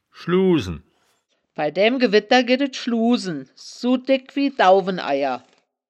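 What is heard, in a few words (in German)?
"schluuh sen", wittlicher woerterbuch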